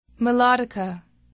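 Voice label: "melodica", English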